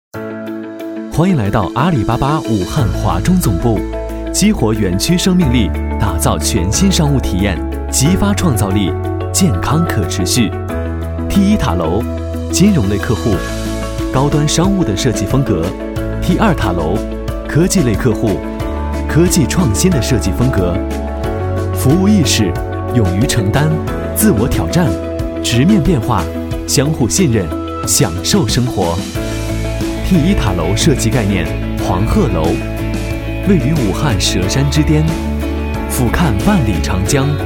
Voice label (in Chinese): 男 科技感; 阿里巴巴武汉华中总部